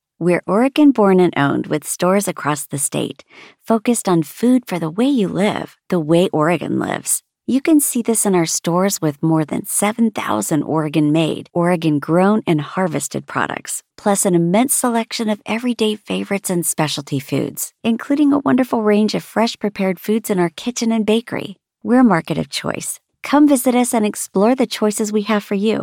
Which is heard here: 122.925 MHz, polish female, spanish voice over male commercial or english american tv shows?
english american tv shows